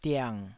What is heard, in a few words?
"diang3", Taiwanese